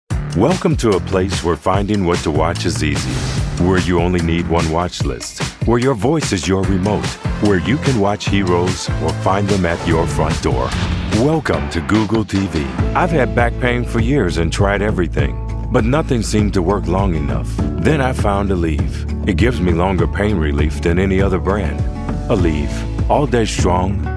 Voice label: Commercial